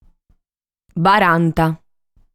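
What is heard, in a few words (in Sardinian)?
"Baranta", Sardinian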